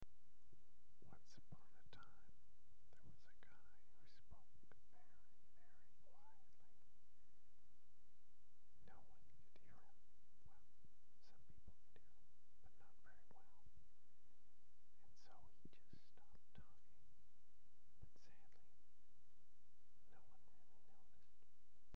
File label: whisper